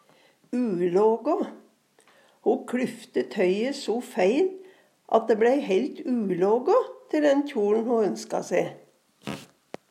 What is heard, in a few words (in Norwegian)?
ulaga, Numedalsmål